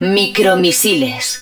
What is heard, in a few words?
hud micro missiles, Spanish